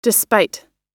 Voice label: "despite", us